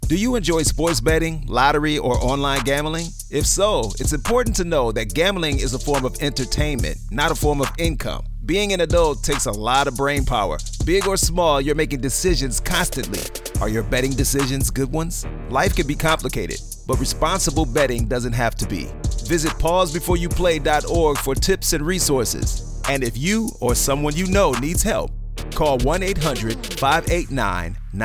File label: African-American 30-Sec Radio Spot; Pause Before You Play